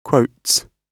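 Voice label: "quotes", British English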